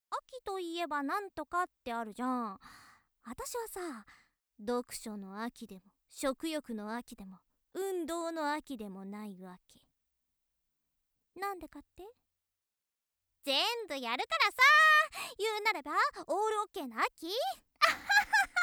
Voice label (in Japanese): 元気JK 秋だね